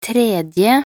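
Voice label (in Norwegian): "tredje", Norwegian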